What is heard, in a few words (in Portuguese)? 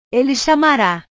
"ele chamara", portugues; portugues/verbos